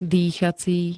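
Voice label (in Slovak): "dýchací", Slovak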